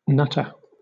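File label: "nutter", British English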